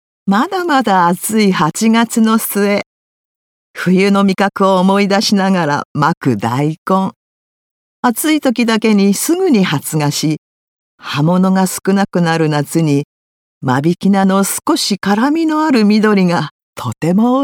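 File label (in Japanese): 季節描写ナレーション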